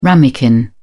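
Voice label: "ramekin", British English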